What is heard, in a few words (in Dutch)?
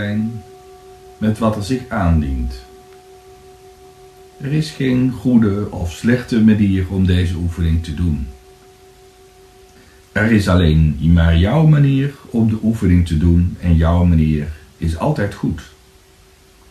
Gedachtenmeditatie